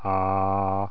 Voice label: Low Pitch